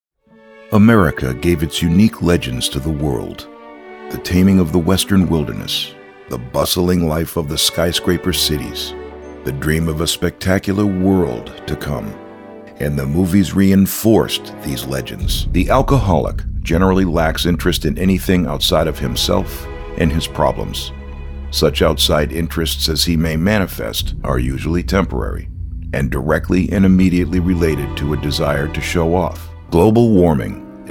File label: Narrations